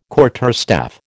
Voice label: "quarterstaff", American